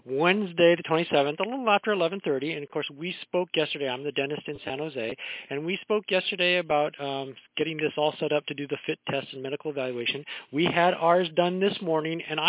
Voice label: Voicemail